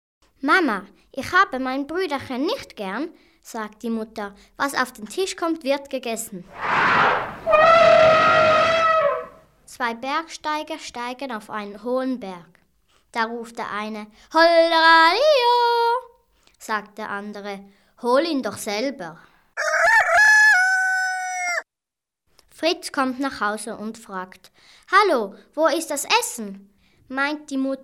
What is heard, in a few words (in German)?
Witze normale Stimme; Witze gesammelt und vertont; ohrmampf